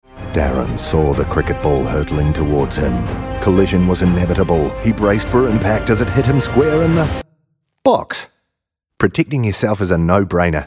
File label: seatbelt radio